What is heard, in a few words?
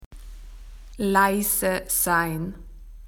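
"to be quiet", Australian English; PictureDictionary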